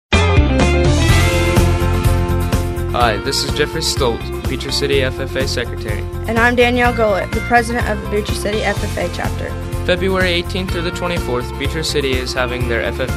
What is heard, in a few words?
male female; Beecher City FFA Week